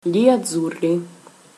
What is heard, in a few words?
"gli azzurri", Italian